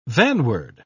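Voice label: "vanward", British English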